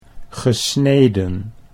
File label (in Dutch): "gesneden", Dutch